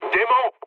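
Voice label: French enemy detected mood low; War Thunder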